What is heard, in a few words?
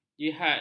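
"jihad", Malay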